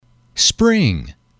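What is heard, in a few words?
"spring", English, male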